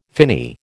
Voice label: "finny", American

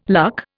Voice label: "luck", Indian

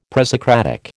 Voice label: "presocratic", American

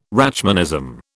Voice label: "Rachmanism", British

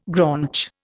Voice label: "graunch", Indian

